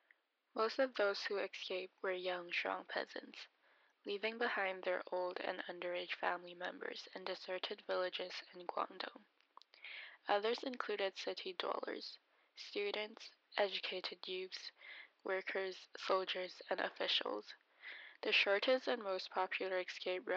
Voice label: narration